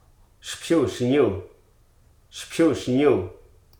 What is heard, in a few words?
"history", Hruso